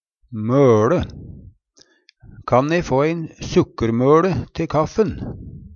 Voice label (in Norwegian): møLe, Numedalsmål; Nokon seier sukkerbete. Var også eit kjælenavn på smågutar